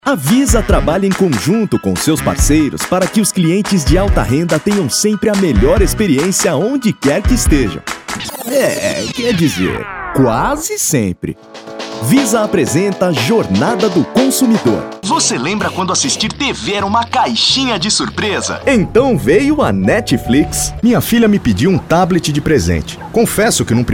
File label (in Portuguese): COLOQUIAL